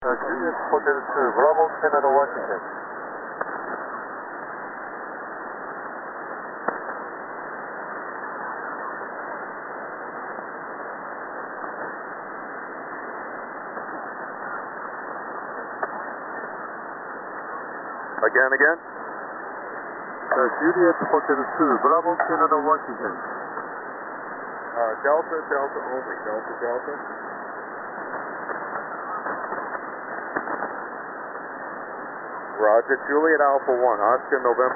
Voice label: 40 SSB